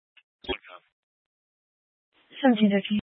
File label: Grand Junction Fire Department Dispatch